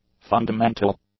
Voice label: "fundamental", English; adjectives